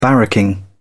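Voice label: "barracking", British English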